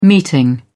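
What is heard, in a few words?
"meeting", English, British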